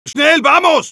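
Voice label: go, Spanish; Medic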